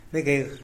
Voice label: "begeh" type of mosquito; animals